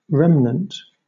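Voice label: "remnant", British English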